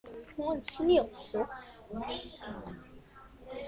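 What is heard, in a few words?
"zip", spoken word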